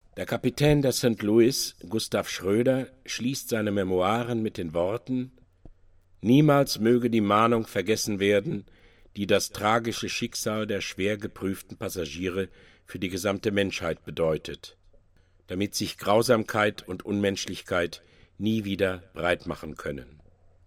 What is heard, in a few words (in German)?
ansage